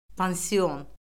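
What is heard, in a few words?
"pansion", Azerbaijani; Orfoqrafiya Lüğəti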